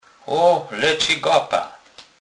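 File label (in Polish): "gapa", Kurpie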